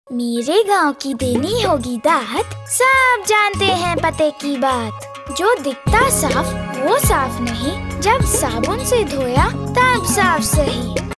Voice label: Hindi

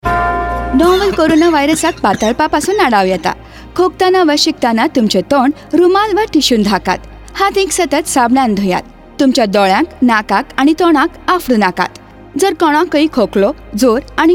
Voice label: Cough Radio, Konkani; Radio spot on key behaviours COVID-19 Goa Konkani; SARS-CoV-2; UNICEF IEC eWarehouse

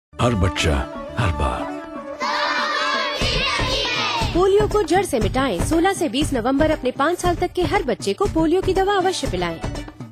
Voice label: Bihari Hindi

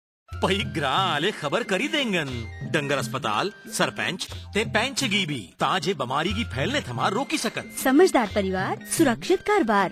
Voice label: Radio Report Dogri

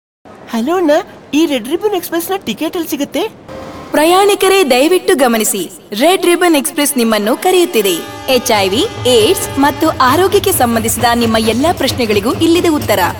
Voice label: Radio Kannada

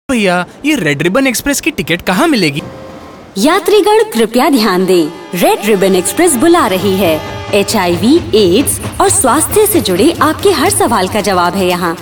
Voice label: Radio Hindi

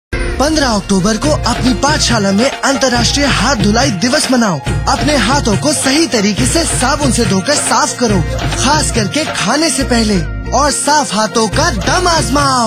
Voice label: Handwash Radio

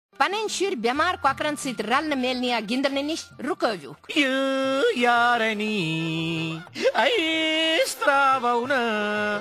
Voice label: Radio PSA (Kashmiri); Prevention

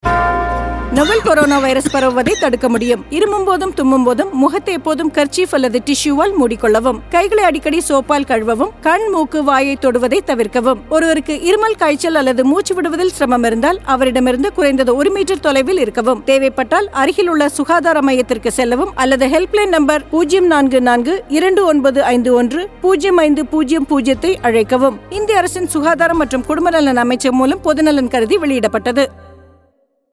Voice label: Cough Radio Tamil

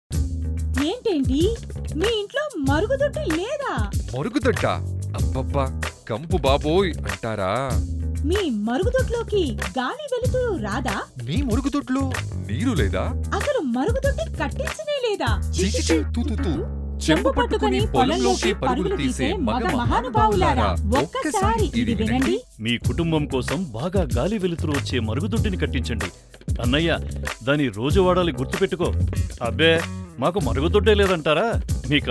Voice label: Telugu Audio Spot; Men to build toilet

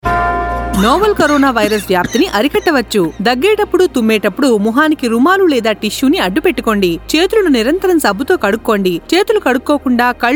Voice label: Cough Radio, telugu; Andhra Pradesh

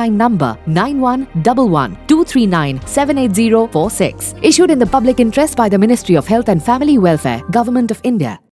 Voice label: Cough English Female

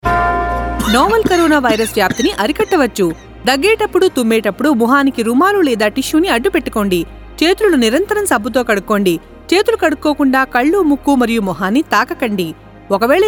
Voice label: Cough Radio, Telugu, Telangana

